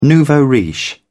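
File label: "nouveau-riche", British English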